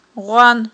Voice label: "гъван", Aghul